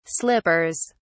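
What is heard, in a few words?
"slippers", British English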